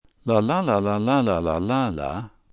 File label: friendly, gibberish